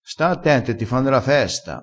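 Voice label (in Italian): "fa la festa", vocabolario dialettale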